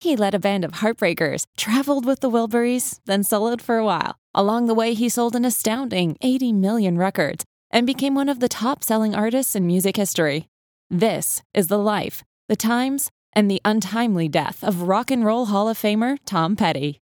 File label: english canadian documentaries